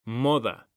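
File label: "moda", Spanish, male; mekanta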